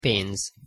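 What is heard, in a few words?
"penz", Hungarian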